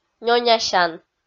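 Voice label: "нёнясян" Komi-Zyrian, female; kpv audio dict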